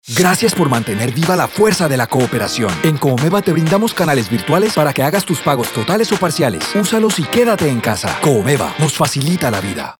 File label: SPOT TV; MEDIOS PAGO